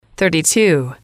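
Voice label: "thirty two", English, female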